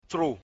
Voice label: "cro", mina